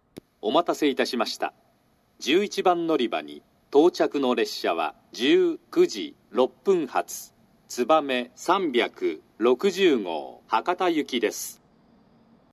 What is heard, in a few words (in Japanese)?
11番のりば接近放送（さくら572号 岡山行き）, 久留米（新幹線ホーム）